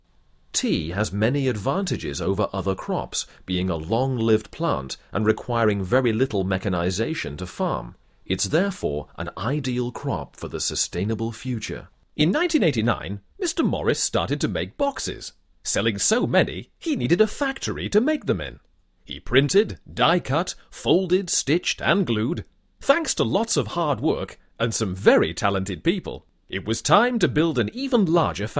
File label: Corporate, UK; Voice-over demos